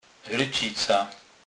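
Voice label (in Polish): "rycica", Kurpie dialect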